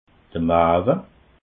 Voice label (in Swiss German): "mawwe", alsace